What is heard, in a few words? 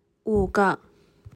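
"五个", Chinese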